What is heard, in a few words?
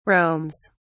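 "roams", British English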